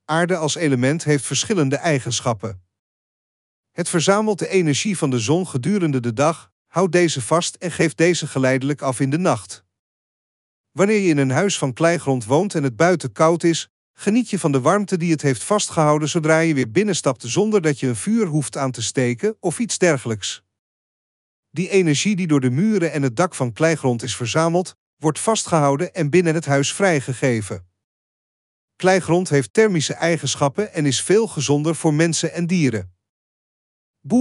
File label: DUTCH; Casa Terracota